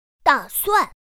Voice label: "dàsuàn", pinyin; pinyin2; pinyinji